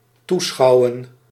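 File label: "toeschouwen", Dutch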